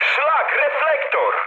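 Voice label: searchlite, mood high, Polish speech; War Thunder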